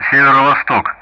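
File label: Speech Sound Russian; Common Player NE; DCS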